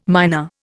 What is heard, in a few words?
"myna", Indian